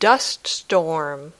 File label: "dust storm", English